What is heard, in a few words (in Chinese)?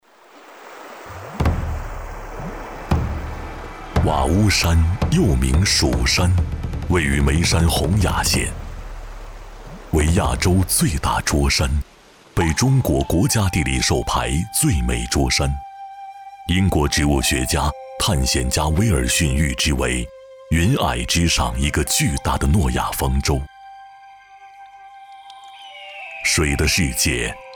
古典 风情; 瓦屋山